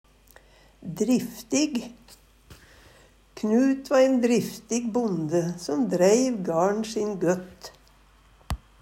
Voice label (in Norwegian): "driftig", Numedalsmål; Adjektiv